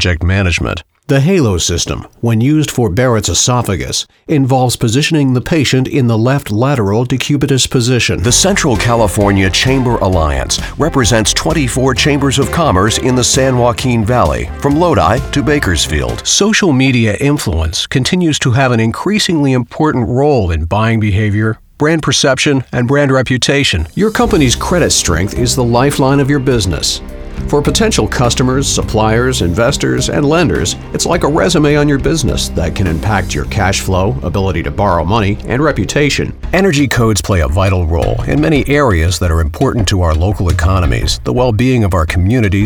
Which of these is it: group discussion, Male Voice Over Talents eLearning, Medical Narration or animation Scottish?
Male Voice Over Talents eLearning